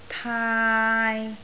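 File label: "taai3" Cantonese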